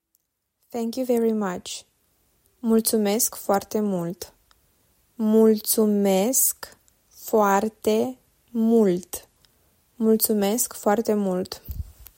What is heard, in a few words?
Romanian Thank you very much; talking romanian phrasebook